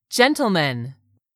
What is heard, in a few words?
"gentleman", English; 3000 words